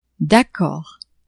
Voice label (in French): "d'accord", French; adverbs